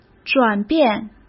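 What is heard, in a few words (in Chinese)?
"转变", Mandarin Chinese; hsk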